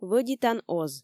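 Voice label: "вӧдитан оз", Komi, female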